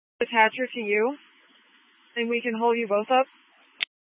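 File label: Incident Tactical, scanner audio recording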